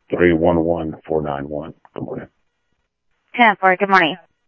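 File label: Lakes Sheriff Lake Patrol, scanner audio trunk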